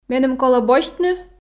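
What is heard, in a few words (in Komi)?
меным колӧ босьтны, Komi, female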